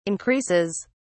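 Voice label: "increases", English, British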